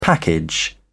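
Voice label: "package", British English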